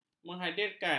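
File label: "menghadirkan", Malay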